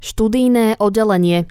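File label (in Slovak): "studijne oddelenie", Slovak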